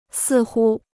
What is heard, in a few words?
"似乎", Chinese